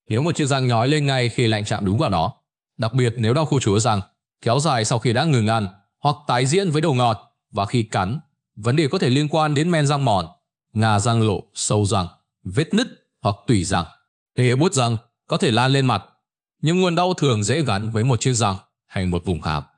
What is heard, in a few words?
narration; Vi Sao An Kem Lanh Khien Dau Ban Dau Buot; StickerMan